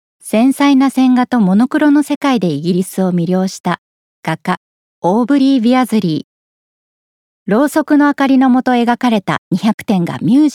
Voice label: 美術展案内ナレ

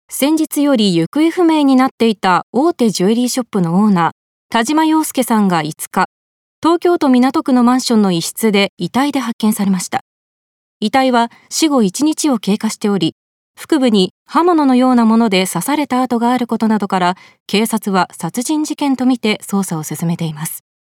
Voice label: ニュース

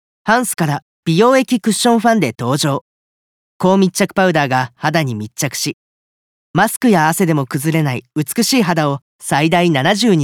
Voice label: 化粧品CM

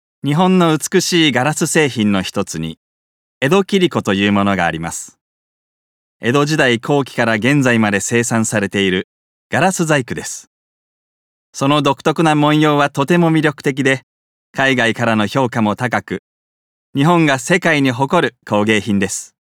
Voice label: 解説ナレーション

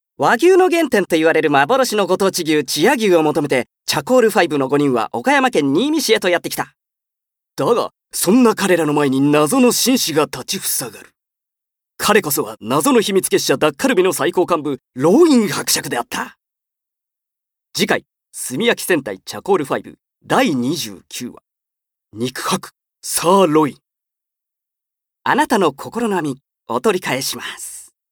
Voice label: 予告編

